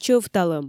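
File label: "чӧвталӧм" Komi, female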